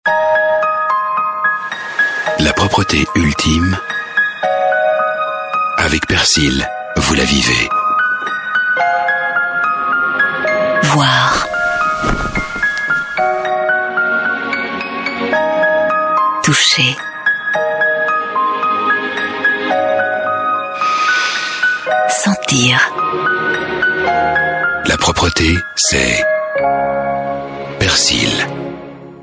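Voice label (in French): Belgian French, male, commercial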